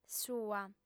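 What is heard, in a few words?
"sua", lingua sarda, female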